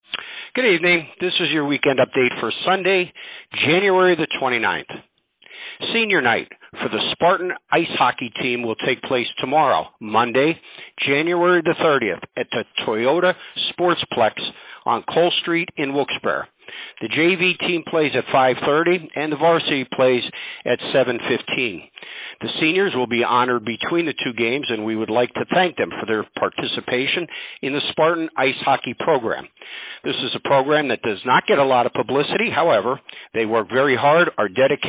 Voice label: Robocall